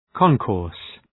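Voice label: "concourse", English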